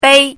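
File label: "bei", Mandarin Chinese, first tone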